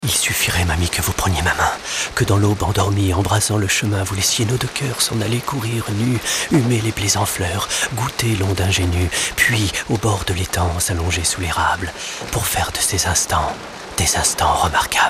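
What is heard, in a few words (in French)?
spot, spots radio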